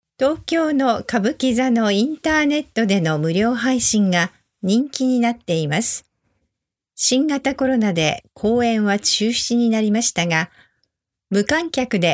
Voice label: news kabuki normal, Japanese